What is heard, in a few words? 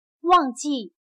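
"忘记", Chinese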